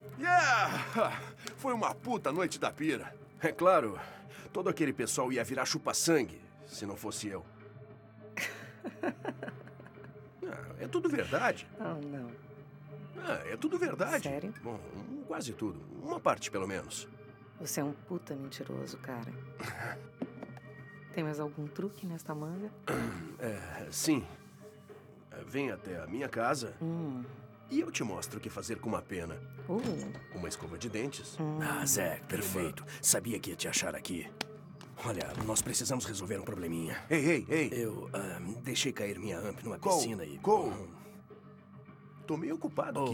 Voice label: dialogue brazilian; outro; inFAMOUS Festival of Blood; ps3

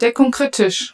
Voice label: German, Shldcrit

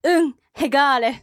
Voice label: Dialogue Generic

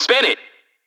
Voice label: Extreme Spin It

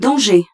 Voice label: French - Danger

